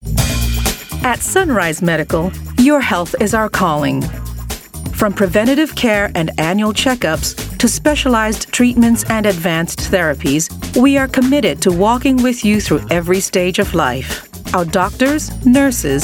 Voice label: US English Healthcare